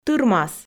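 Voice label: "тырмас", Komi, female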